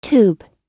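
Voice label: "tube", English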